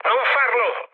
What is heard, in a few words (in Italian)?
bomb failure, mood med, speech it; War Thunder